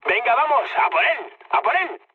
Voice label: attack position, mood med, speech; War Thunder